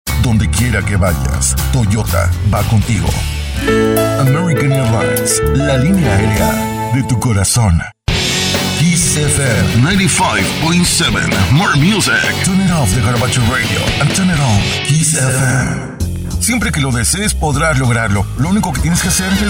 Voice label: produced voice